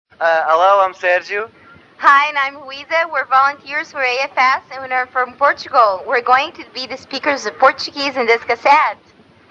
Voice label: Introduction portuguese